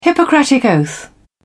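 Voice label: "hippocratic oath", British English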